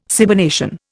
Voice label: "cybernation", Indian

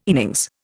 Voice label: "innings", Indian

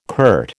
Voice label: "curt", American

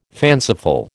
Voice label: "fanciful", American

